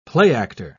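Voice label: "playactor", British English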